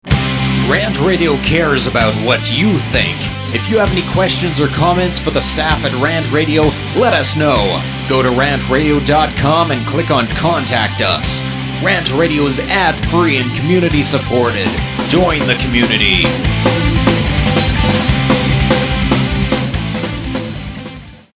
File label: Promo punk psa; RantRadio; Station Promos-In House